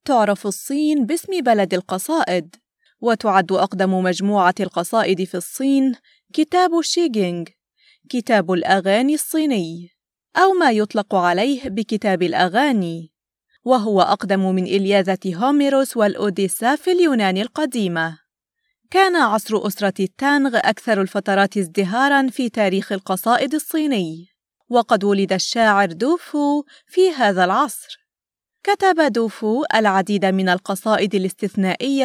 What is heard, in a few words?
Arabic female